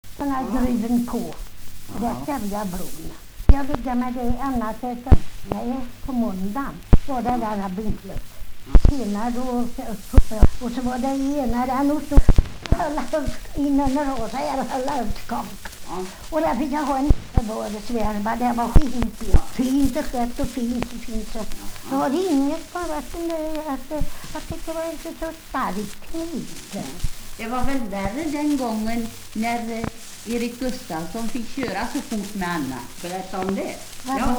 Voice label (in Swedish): dialekter; Folkminnen